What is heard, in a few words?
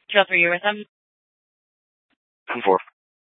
Scottsdale PD Sth Dispatch, scanner